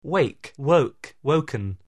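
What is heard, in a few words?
"wake", English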